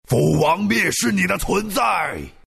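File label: deny, chinese; dota2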